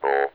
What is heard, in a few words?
O, votrax speech; vectrex